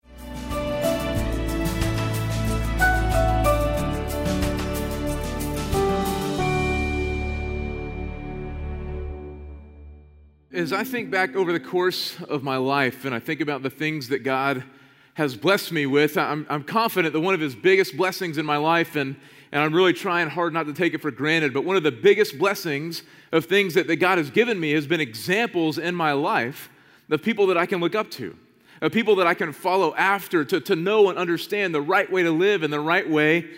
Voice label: Sermon English